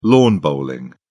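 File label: "lawn bowling", British English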